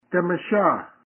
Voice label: Apparition Temeshaa, Kumeyaay

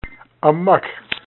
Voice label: "AaMulth", Kumeyaay; Draw write

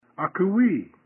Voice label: Ask Question Akekwii, Kumeyaay

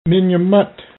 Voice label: "MinYaMut", Kumeyaay

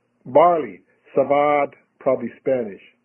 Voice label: Barley Siivaad, Kumeyaay

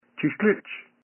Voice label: Animal or Devil Chiillich, Kumeyaay

